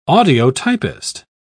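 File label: "audiotypist", British English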